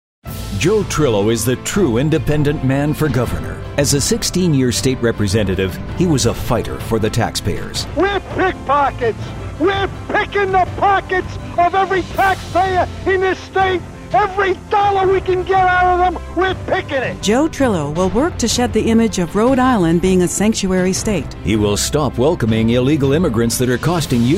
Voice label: Postive, political